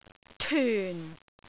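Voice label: "tyun4", Cantonese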